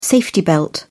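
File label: "safety belt", English, British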